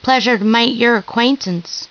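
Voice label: female answer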